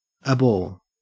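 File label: "abhor" Australian English; pronunciation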